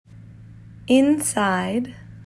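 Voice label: "Inside", English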